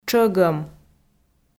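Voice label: "тшӧгӧм", Komi, female; audio dict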